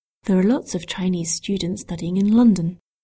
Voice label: Mandarin